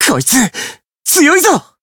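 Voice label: encount fear, Japanese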